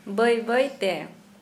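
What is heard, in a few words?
Santali female slowly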